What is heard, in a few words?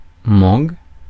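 "mangue", French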